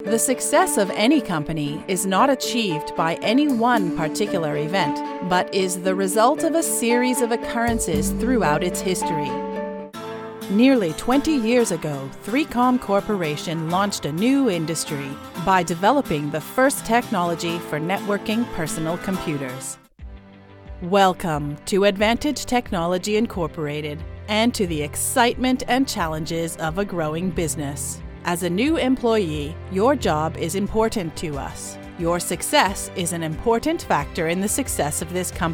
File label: english canadian female corporate; dictor; dictor-sounds